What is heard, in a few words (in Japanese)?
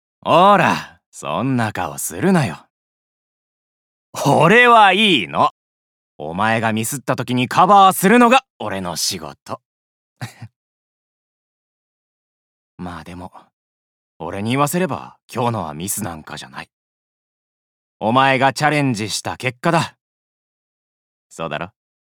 後輩を宥める先輩社員